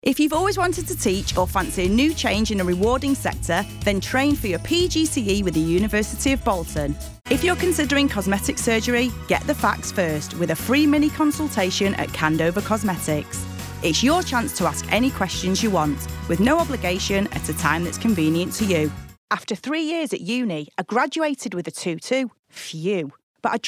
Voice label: female voice